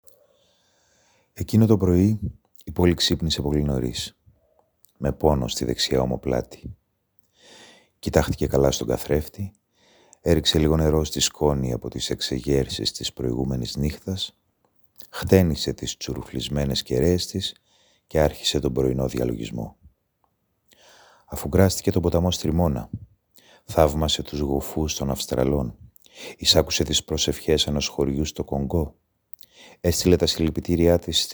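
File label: Greek Language, voice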